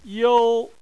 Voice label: "you1", Chinese